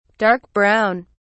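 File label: "dark-brown", British English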